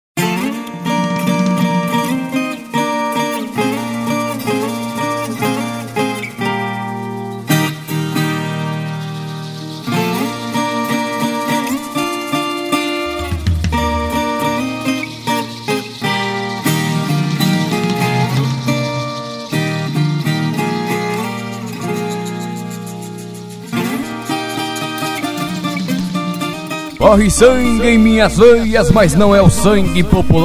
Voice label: locutor abertura com viola

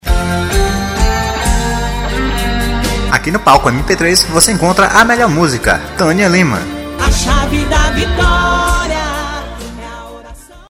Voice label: comercial; a chave da vitoria

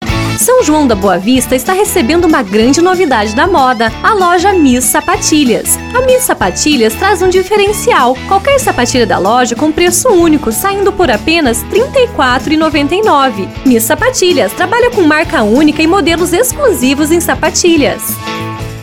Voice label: VOZ IMPACTO GRAVE vinheta para loja de sapatilhas sapatos spot chamada; sandalia; comercial

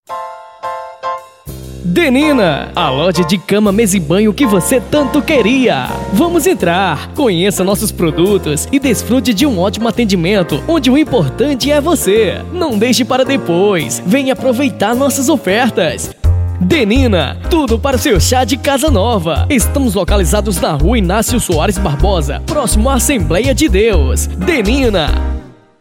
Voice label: institucional loja

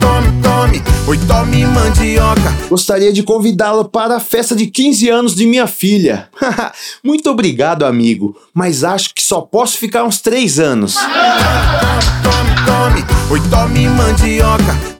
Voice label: spot piada; rei da mandioca

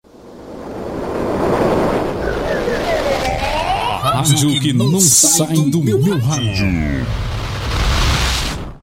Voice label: vinhetas a radio que nao sai do meu radio efeito fundo voz

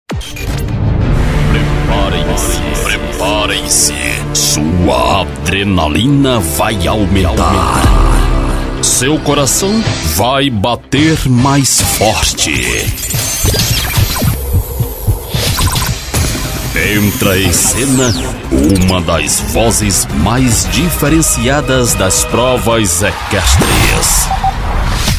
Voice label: locutor producao de offs vinhetas spots comerciais

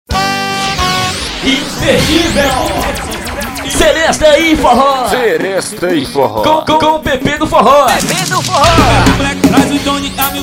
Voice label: vinheta locutor

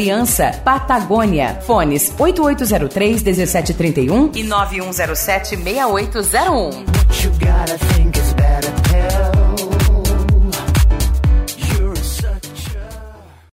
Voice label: locutor locutora salao de beleza

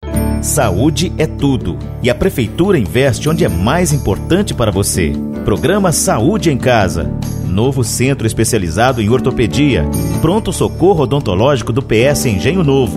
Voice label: Locutor spot saude; barueri

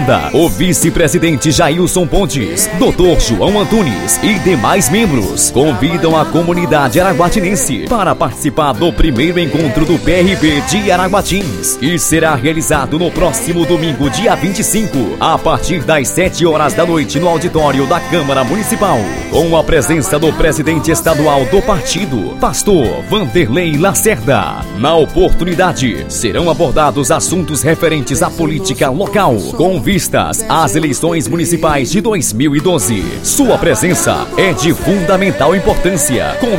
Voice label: spot convite

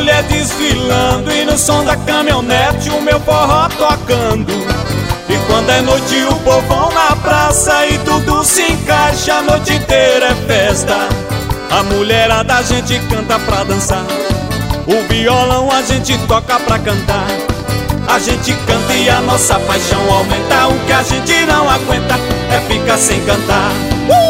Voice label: locutor de rodeio cavalgada

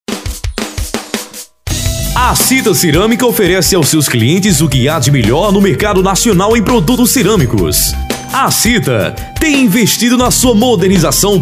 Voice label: spot; cita ceramica; itaporanga pb

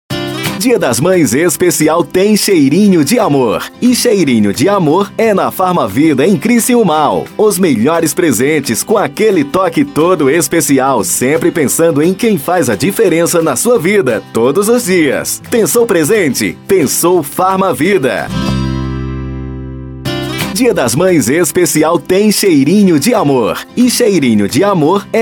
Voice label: banco de vozes propaganda dia das maes anuncio comercial

